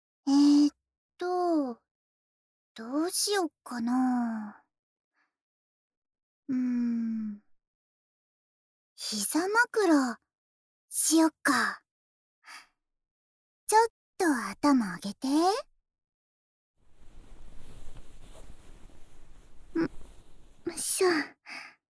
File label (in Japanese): ASMR 気持ち良い睡眠のための準備